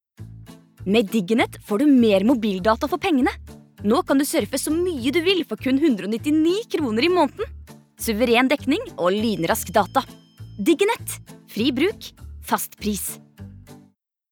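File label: Norwegian commercial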